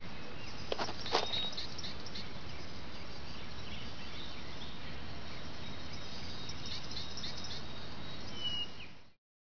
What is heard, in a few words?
spanish; Broken Sword 1 - The Shadow of the Templar (CD, Spanish); ScummVM